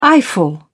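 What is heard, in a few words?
"eyeful", British English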